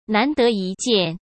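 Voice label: "难得一见", Mandarin Chinese; hsk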